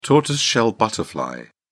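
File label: "tortoiseshell butterfly", English, British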